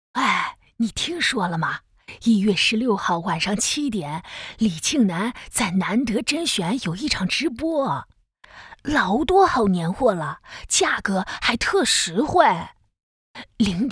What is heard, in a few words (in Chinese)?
大妈